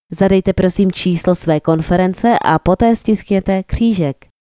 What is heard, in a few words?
czech Enter Conference Number